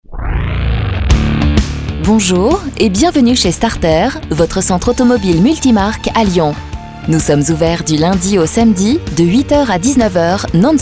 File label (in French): REPONDEUR; STARTERRE